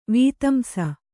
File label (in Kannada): "ವೀತಂಸ", Kannada; vītamsa; noun